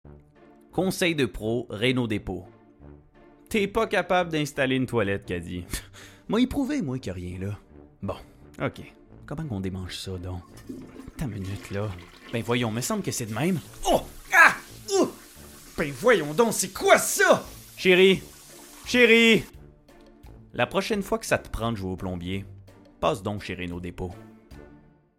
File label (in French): annonceur personnage humour fr qc naturel affirme determine; reno depot